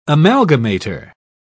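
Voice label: "amalgamator", English, British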